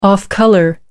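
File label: "off-color", English, British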